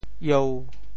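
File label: "ieu1", Teochew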